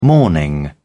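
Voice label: "morning", British English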